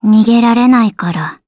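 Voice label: Vox Skill Japanese; Gremory; kingsraid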